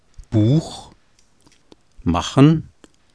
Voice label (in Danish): tysk Buch machen; [bu:x ˈmɑxn̩]